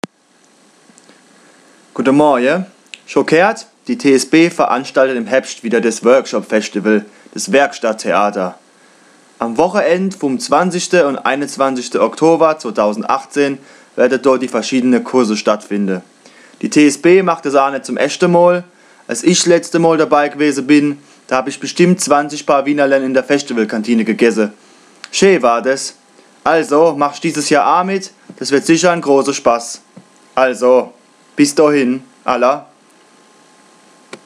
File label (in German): Ankündigung Kurpfälzisch